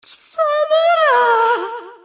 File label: Enemy Voice Damaged Attack; European Water Princess